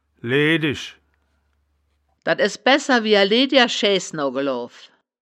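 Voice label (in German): "leedisch", Wittlicher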